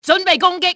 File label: take position, cantonese; XBOX; enemy